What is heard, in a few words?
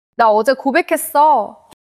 neutral, monologue